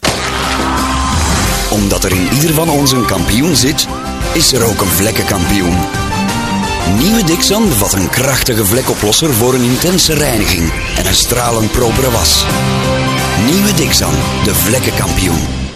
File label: Dixan Commercial